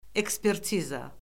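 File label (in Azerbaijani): "ekspertiza", Azerbaijani; Orfoqrafiya Lüğəti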